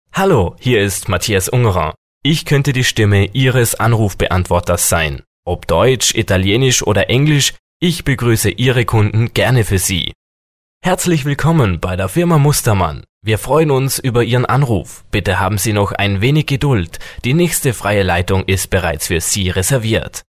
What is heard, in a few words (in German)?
Sprecher Telefonansagen